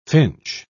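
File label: "finch", English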